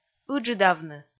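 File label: "ыджыдавны", Komi, female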